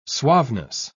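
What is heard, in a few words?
"suaveness", British English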